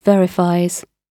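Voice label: "verifies", British English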